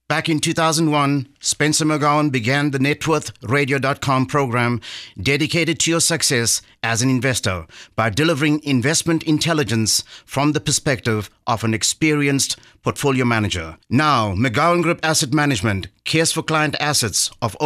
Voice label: Radio; Networth